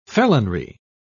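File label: "felonry", British English, dictionary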